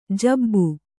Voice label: "ಜೂಬು", Kannada

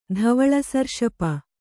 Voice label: "ಧವಳಸರ್ಷಪ", kannada

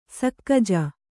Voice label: "ಸಕ್ಕಜ", kannada

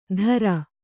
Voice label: "ಧರ", Kannada

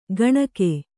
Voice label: "ಕಾಣ್ಕೆ", Kannada

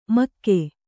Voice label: "ಮಘ", Kannada

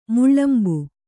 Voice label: "ಮೇಳಂಬ", kannada

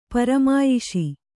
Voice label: "ಪರಮಾಯಿಷಿ", kannada

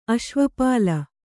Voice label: "ಅಶ್ವಪಾಲ", Kannada

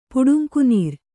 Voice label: "ಪುಡುಂಕುನೀರ್", Kannada

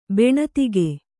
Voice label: "ಬೆಣತಿಗೆ", Kannada

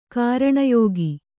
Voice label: "ಕಾರಣಯೋಗಿ", kannada

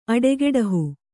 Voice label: "ಅಡೆಗೆಡಹು", Kannada

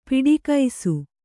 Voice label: "ಪಿಡಿಕೈಸು", Kannada

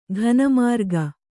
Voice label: "ಘನಮಾರ್ಗ", Kannada; ghana mārga; noun; = ಘನಪಥ.

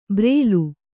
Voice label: "ಬ್ರೇಲು", Kannada

